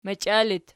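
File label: "machia" locative, Archi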